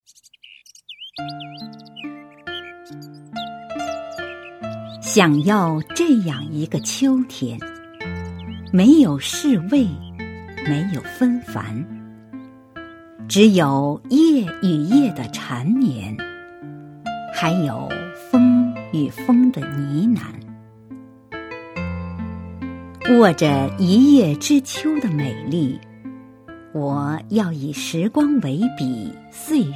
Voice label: 美文想要这样一个秋天

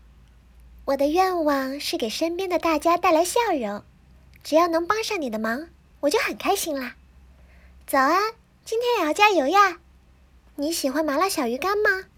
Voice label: 甜美少女; 芭芭拉

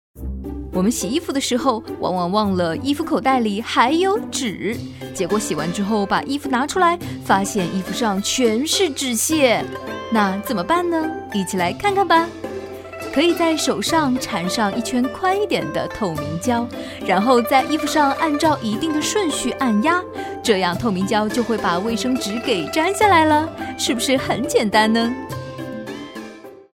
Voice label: 趣味介绍配音

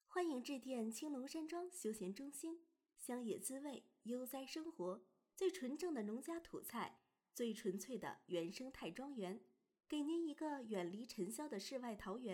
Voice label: 彩铃

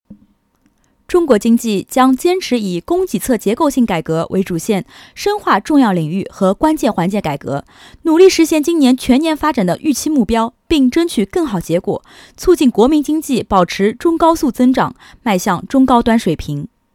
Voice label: 新闻

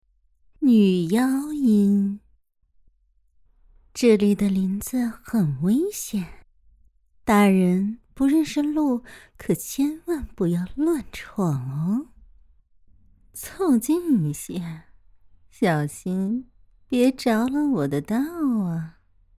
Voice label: 女妖音

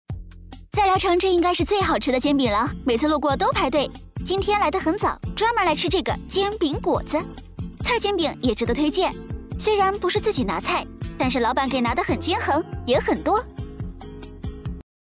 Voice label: 探店广告

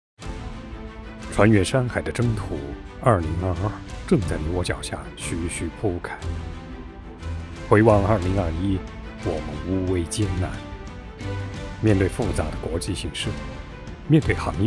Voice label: 年终专题配音

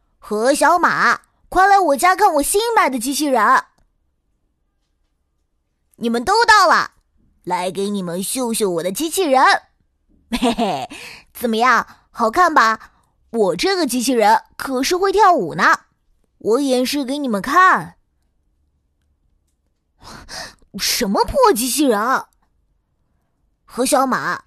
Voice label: 顽皮男童

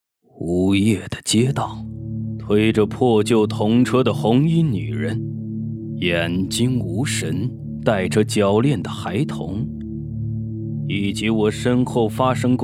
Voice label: 样音悬疑惊悚片头

